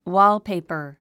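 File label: "wallpaper", English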